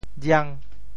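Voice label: "jiang5", Teochew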